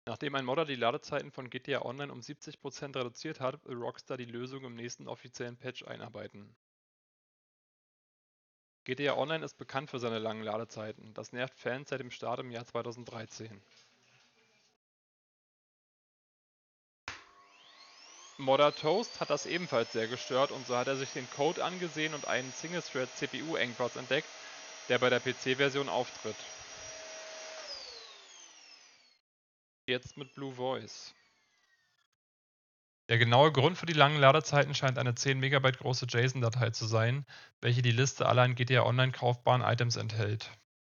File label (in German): Aufnahme Mikrofon Gaming Headset; Logitech Pro X Wireless